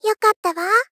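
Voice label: Gentle, Praised, "Yokatta wa", Japanese female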